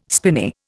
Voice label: "spinney", Indian

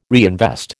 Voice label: "reinvest", American

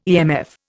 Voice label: "EMF", Indian

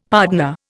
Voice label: "pardner", Indian; Dictionary

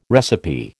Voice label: "recipe", American

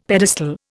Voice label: "pedestal", Indian; Dictionary

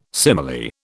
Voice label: "simile", British

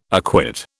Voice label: "acquit", British

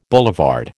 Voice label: "boulevard", American

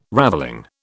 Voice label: "ravelling", British

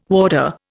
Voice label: "warder", Indian

